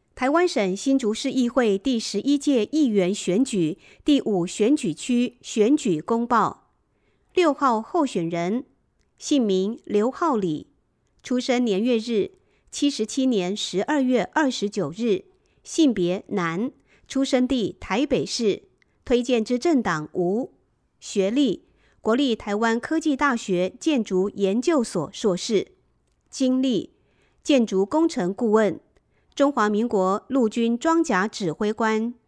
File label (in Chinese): 國語; 新竹市; 有聲公報; 市議員; 第五選舉區